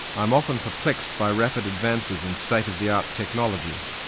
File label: Noisy Speech